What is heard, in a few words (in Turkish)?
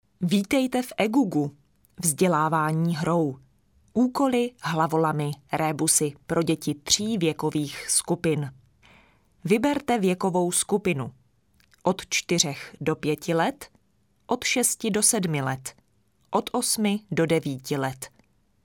Cekce Kadin, Kadın Ses